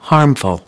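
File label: "harmful", English; UNIT 5: HEALTHY LIVING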